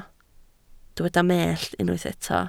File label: "mellt", Welsh